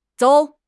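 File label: "zou3", Chinese; HSK; HSK2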